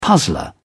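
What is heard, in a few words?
"puzzler", British English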